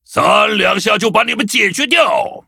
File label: victory, zh-hant